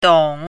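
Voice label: "dong3", Chinese pinyin, third tone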